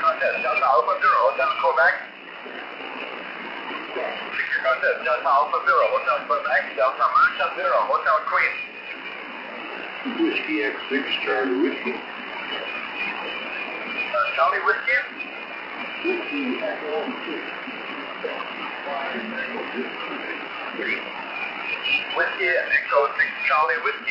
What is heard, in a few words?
DA0HQ usb, da0hq contest